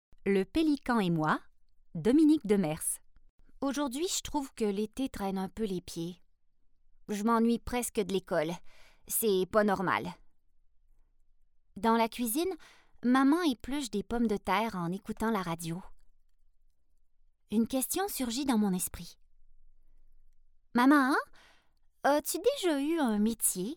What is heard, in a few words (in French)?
Narration juvenile et personnage Amusee; Le Pelican et moi